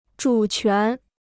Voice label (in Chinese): "主权", Chinese